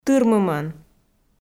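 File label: "тырмымӧн", Komi, female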